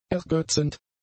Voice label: "ergötzend", German; adjectives

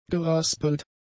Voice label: "geraspelt", German; adjectives

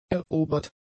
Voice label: "erobert", German; adjectives

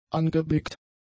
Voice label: "angeblickt", German; adjectives

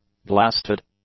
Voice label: "blasted", English; adjectives

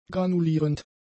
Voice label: "granulierend", German; adjectives

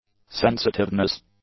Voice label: "sensitiveness", English; nouns

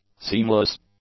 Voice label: "seamless", English; adjectives

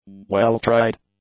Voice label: "well-tried", english; adjectives

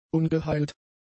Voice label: "ungeheilt", German; adjectives

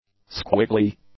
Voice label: "squiggly", english; adjectives

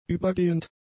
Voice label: "übergehend", German; adjectives

